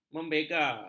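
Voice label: "membega", Malay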